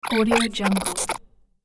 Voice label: little imp chatter